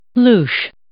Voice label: "louche", English